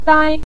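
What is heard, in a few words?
"dai" Mandarin Chinese, neutral tone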